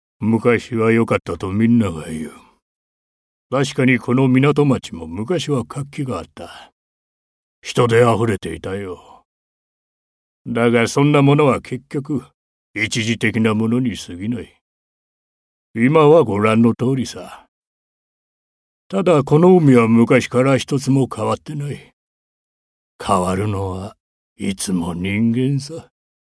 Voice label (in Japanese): 老人